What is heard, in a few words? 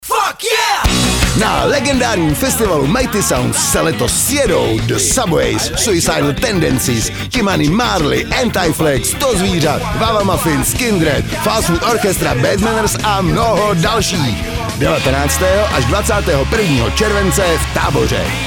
radio spot; Mighty Sounds 2013